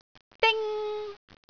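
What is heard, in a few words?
"ding1", Cantonese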